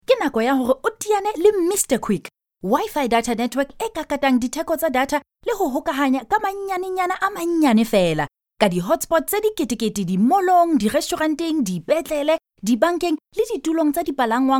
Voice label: Sotho Bright

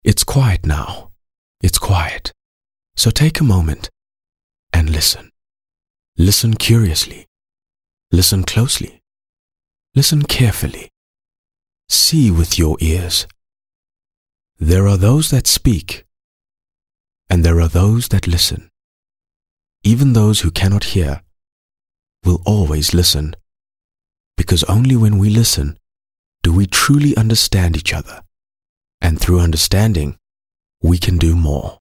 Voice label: English Soft Sell; OSM Talent

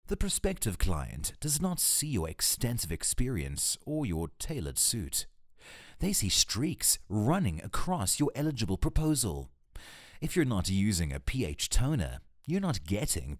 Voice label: English Corporate Lightheartedness

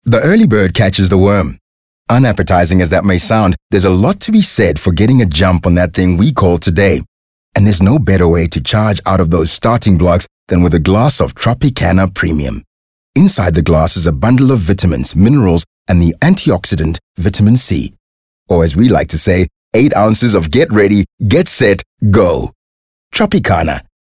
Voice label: English Conversational Sell

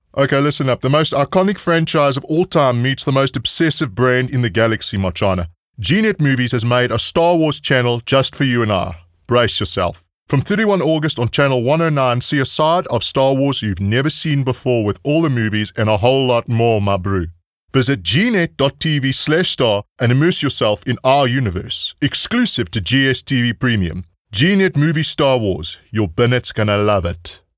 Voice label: English Character Boykie; OSM Talent

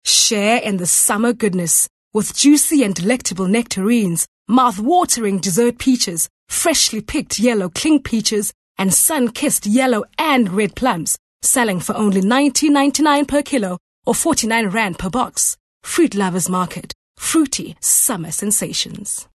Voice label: English Bright

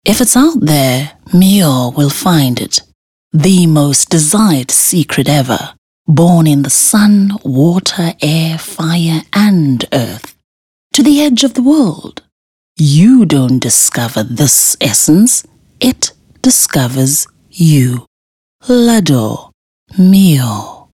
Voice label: English intimate

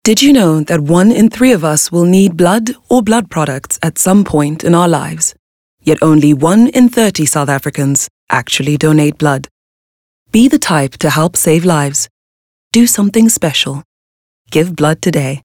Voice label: English Soft Sell

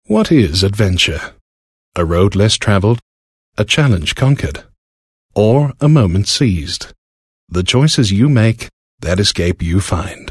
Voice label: Softsell

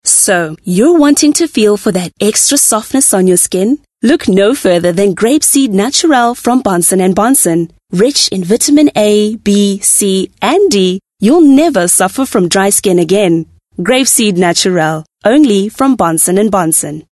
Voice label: English Softsell

